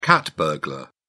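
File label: "cat burglar", British English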